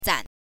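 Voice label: "zan", Mandarin Chinese, neutral tone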